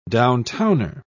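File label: "downtowner", British English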